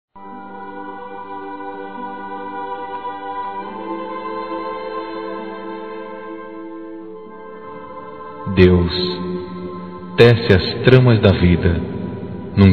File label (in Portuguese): Neutro Católico masculino